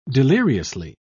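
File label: "deliriously", British English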